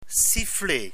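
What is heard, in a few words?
"siffler", French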